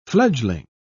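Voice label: "fledgeling", British English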